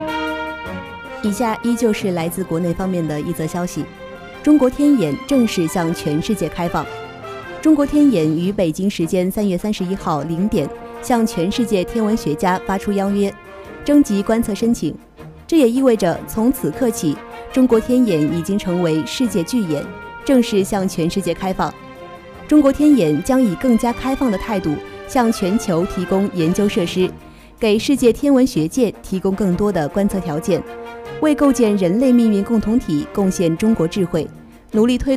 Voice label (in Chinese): 新闻; 中国天眼